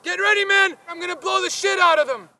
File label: spot; bf3